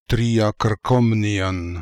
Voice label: "Triakrkomnian", pronounciations; Fourth Way; Beelzebub